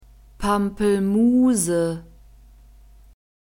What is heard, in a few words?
"Pampelmuse", German; fruits